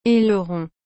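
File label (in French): "aileron", French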